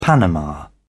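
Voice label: "panama", British English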